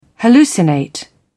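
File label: "hallucinate", British English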